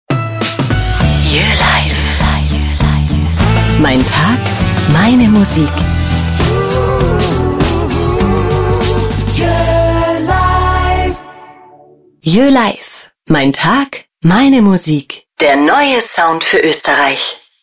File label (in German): Station Voice sanft Radio; Sprecherin